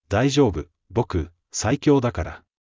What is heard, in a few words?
anime, Japanese, Hilarious Anime Quotes